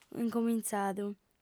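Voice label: "incomintzadu", Sardinian, female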